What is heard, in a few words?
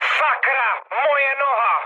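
damaged pilot, Czech, mood med; War Thunder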